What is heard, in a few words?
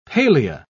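"palea", British English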